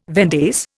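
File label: "vendace", Indian English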